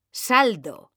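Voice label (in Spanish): "SALDO", Spanish; mekanta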